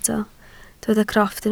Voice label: "crofft", welsh